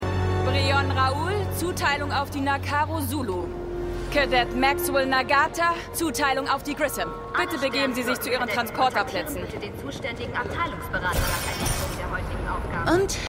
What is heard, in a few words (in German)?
Offizierin, Stimmproben; Star Trek Picard